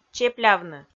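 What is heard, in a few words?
"чеплявны", Komi, female; kpv audio dict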